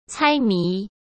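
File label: "猜谜", Mandarin Chinese; hsk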